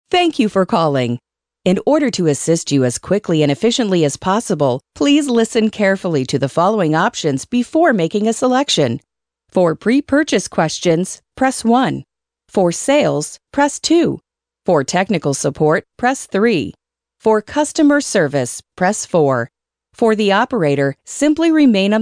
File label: female ivr